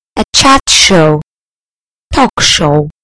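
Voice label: "chat show", British English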